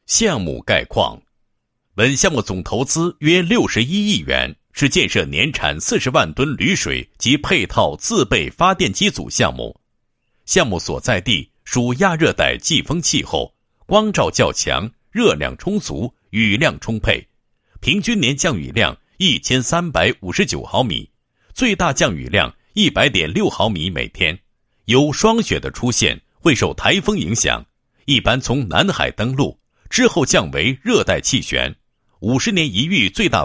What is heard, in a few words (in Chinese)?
男; 项目建设; 铝厂厂房采光通风方案